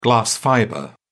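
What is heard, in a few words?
"glass fibre", British English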